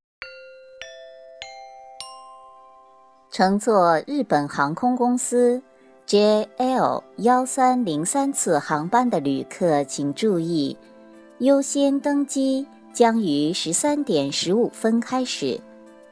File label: announce